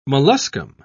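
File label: "molluscum", British English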